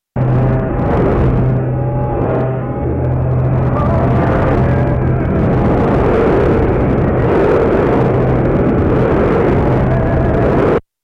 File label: voice of people jammed